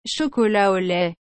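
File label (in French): "chocolat au lait", French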